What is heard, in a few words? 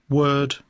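"word", English; poitiers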